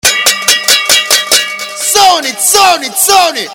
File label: Mad voice; MAAADDD